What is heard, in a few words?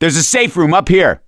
misc directional, voice; gambler